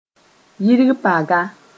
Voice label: "yir paga", Mooré

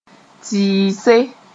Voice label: "tɩɩse", moore

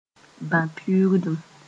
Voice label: "bã-piuugdum", Mooré; epidemic

